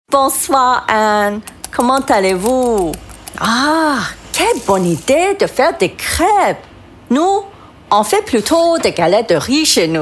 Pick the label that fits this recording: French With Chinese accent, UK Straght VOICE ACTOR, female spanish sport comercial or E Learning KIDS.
French With Chinese accent